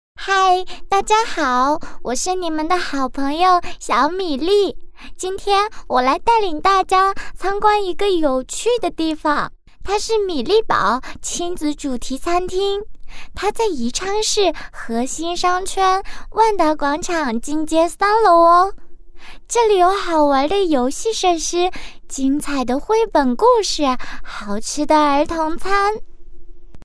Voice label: 女童声, 3-5岁

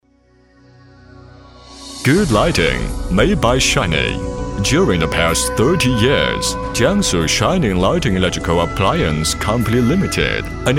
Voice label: 英男; Good Lighting

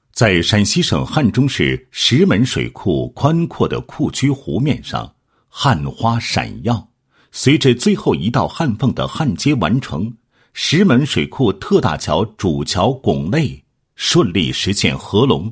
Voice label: 男 工程简介, 水库特大桥合龙介绍; 石门水库特大桥合龙介绍

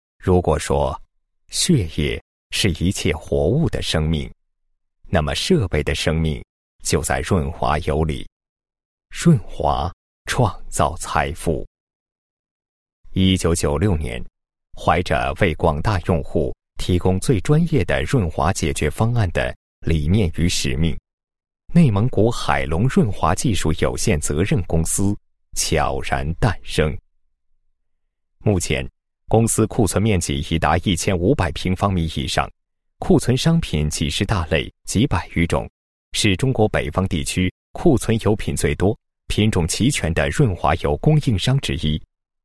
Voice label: 舒缓低沉企业宣传, 国语男声配音, 磁性; 磁性专题; 内蒙古海隆

